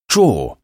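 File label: "drawer", English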